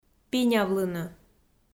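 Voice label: "пинявлыны", Komi, female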